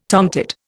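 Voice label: "tonal", Indian; Dictionary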